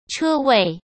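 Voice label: "车位", Mandarin Chinese; chinese flashcards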